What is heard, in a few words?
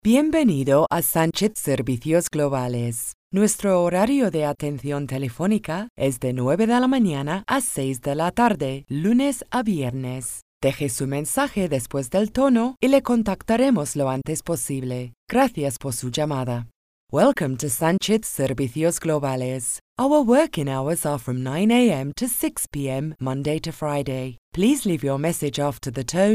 TELEPHONE SPANISH ENGLISH